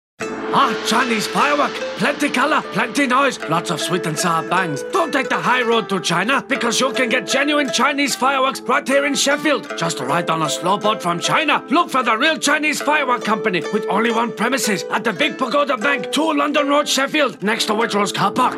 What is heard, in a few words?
radio advert